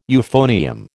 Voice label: "euphonium", American